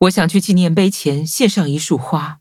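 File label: level up, Traditional Chinese; cookie run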